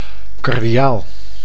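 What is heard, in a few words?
"cardiaal", Dutch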